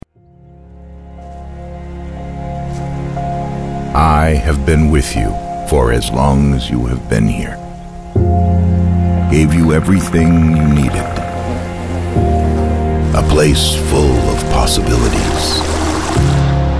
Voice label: Trailer, voiceovers; A World Unseen

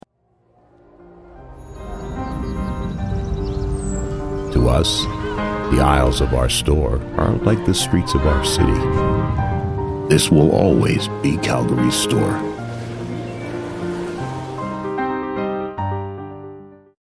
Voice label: Promo, voiceovers; Calgary Co-Op

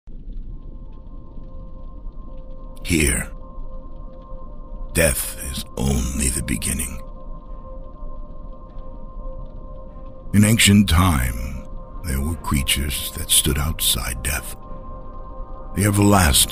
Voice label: Video Game Trailer; Dark Souls

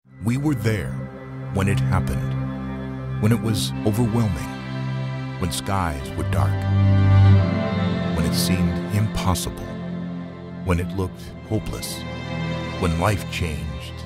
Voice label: National TV; Trinet

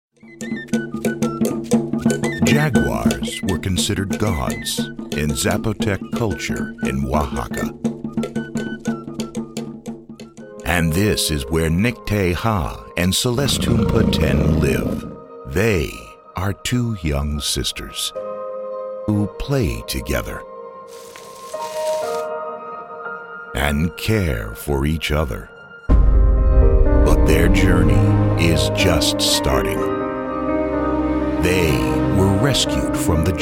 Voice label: Documentary, voiceovers; Jaguars of the Night

